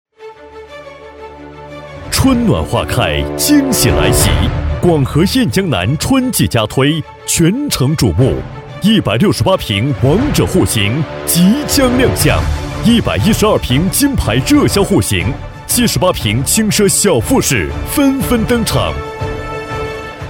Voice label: 男95-广告-电台广告

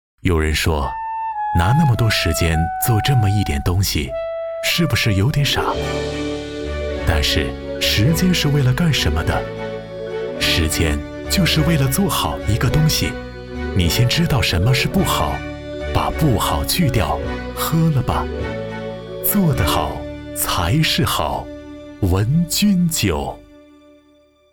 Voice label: 男 广告 酒广告, 男

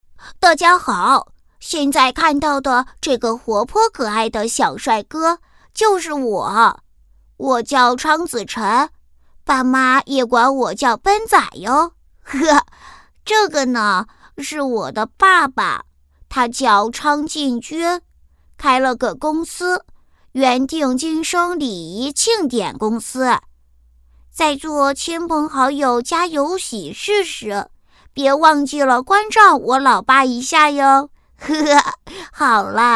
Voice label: 女-模仿-男童声音

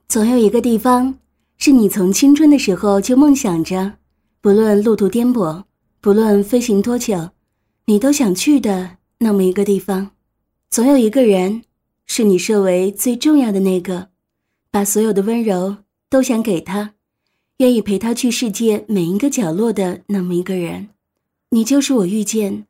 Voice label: 女 旁白; 总有一个地方

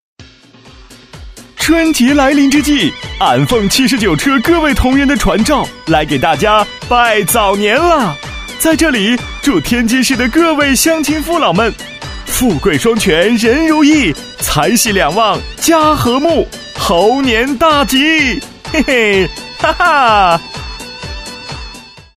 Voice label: 男 广告 喜悦; 拜年

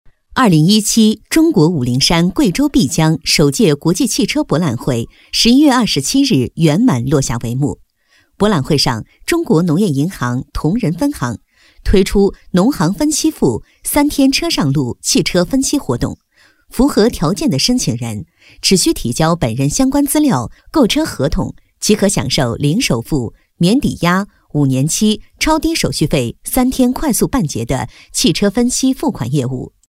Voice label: 女 新闻 车展配音文案 新闻; 农行

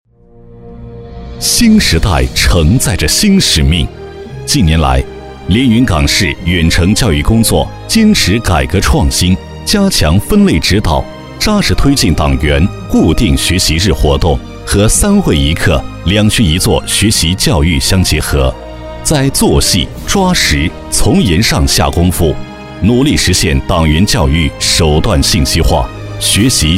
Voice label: 男 专题 工作汇报; 生机与活力

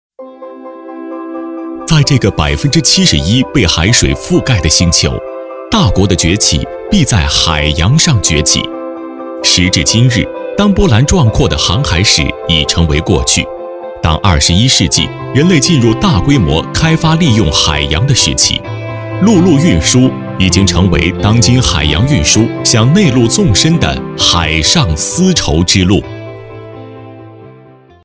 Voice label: 男 专题 海上丝绸之路

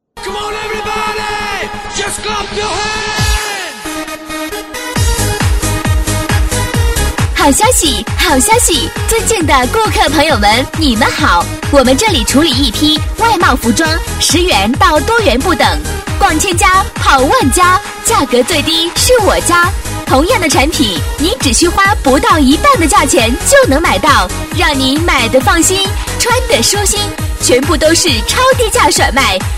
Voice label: 女 促销 外贸服装速来抢购

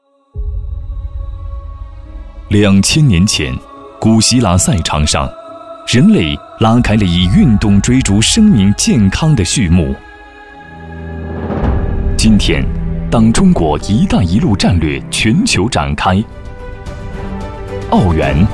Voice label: 男, 专题; 奥园集团

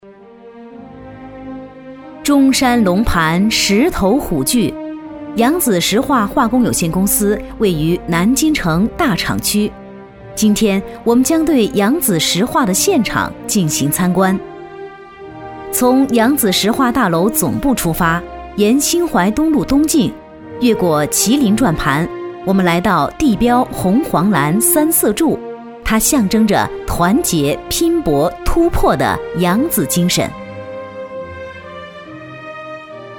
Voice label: 企业宣传片

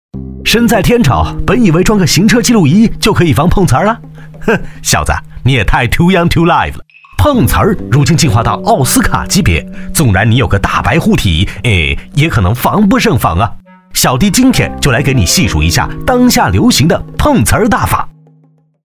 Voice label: 男 飞碟说; 小嘀讲堂

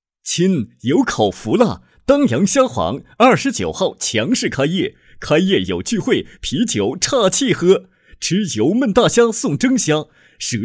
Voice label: 男 促销; 大虾虾皇

